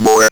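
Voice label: ROBVOX, robot voice; E-Lab; X Static Goldmine 1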